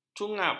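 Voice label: "cungap", Malay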